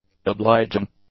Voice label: "obliging", english; adjectives